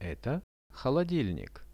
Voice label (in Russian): "eto holodilnik", Russian; Это холодильник; dom; RUSSIAN VISUAL DICTIONARY